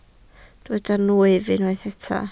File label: "nwyf", Welsh